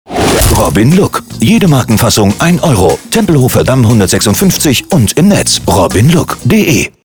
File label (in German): Handel Radiowerbung